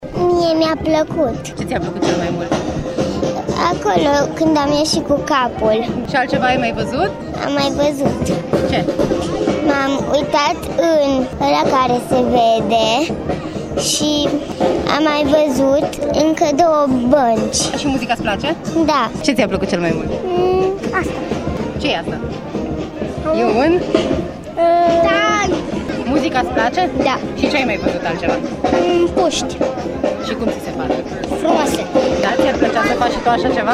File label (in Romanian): voxuri copii; Academia Forțelor Terestre (AFT) „Nicolae Bălcescu” din Sibiu; Școala Altfel la AFT - grenade, fanfara militară și lupte